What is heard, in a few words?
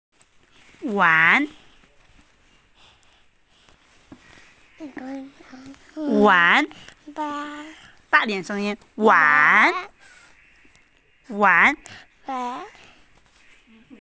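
"wan2", Mandarin Chinese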